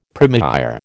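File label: "première", American English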